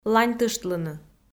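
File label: "ланьтыштлыны" Komi, female; kpv audio dict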